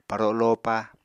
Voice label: "paro lopa", Apatani